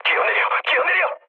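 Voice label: Korean bailout mood low, speech; War Thunder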